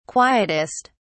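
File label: "quietest", British English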